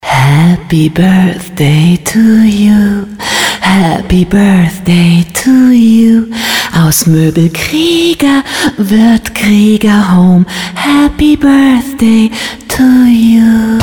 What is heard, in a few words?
happy